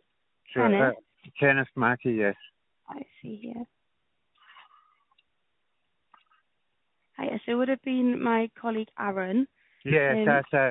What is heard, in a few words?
call recording